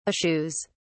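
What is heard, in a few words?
"eschews", British English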